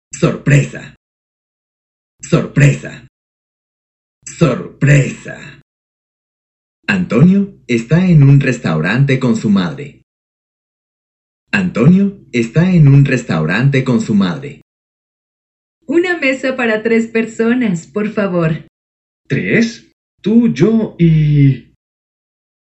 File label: Spanish Surprise; Spanish Stories